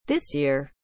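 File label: "this year", English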